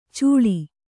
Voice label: "ಚುಳಿ", Kannada